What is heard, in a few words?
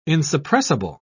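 "insuppressible", British English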